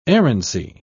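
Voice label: "errancy", British English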